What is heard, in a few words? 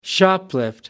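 "shoplift", British English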